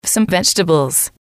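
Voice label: "some vegetables", English, female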